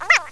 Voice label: "Zdanie", Russian